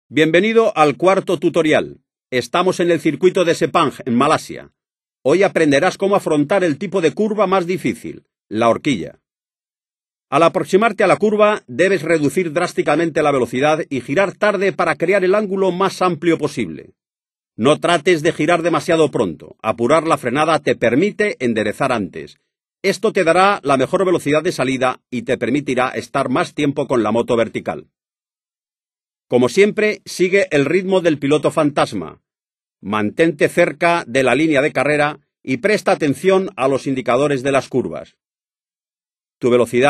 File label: hairpin spanish; MotoGP 3